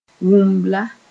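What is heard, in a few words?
"wũmbla", Mooré; small calabash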